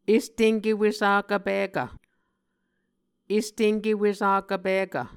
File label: "estenke wesakv pikv", Muscogee; Mvskoke Words with Voicing Consonants; A Dictionary of Creek/Muskogee; SIL International